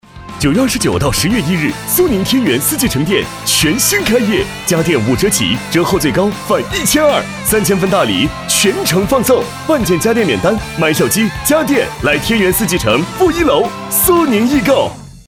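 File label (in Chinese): 【广告】男-激情; 苏宁易购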